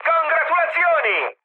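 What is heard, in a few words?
Italian player killed enemy mood high, speech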